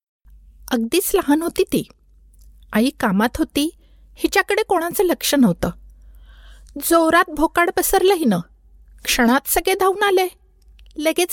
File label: narration marathi